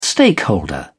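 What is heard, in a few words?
"stakeholder", British English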